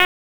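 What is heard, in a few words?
Police Southwest District Tac, radio; Raleigh; Tac 1; wake; p25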